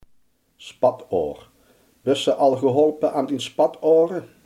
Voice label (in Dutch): "spatader", Limburgs